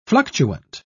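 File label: "fluctuant", British English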